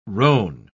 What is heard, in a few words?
"roan", pronounce